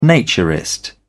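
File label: "naturist", British English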